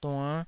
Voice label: "duann5", Taiwanese